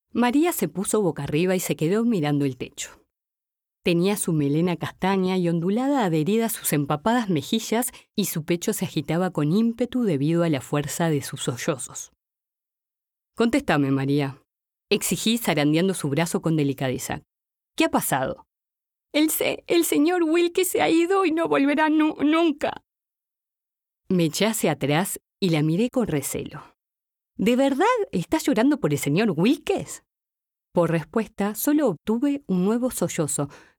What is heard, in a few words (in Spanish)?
dialogos audiolibro